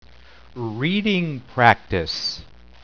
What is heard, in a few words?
reading practice